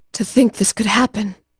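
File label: "bakana", Japanese; p4u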